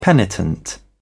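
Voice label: "penitent", English, British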